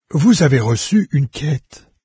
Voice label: new quest, fr, NARRATOR; Fate